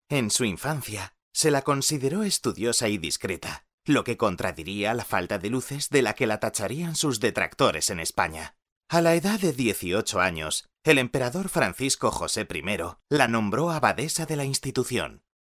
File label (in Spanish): locutor joven spanish voiceover